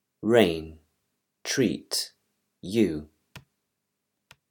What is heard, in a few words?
english pronunciation rules